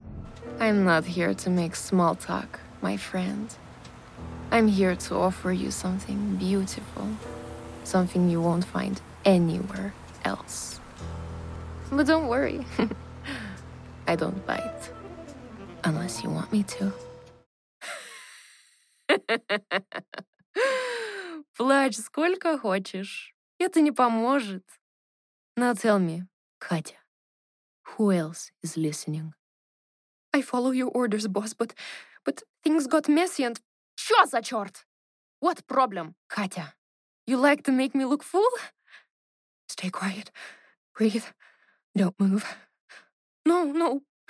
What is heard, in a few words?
English Russian Accent